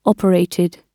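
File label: "operated", British English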